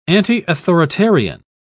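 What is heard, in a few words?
"antiauthoritarian", English, British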